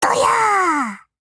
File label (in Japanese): VO jp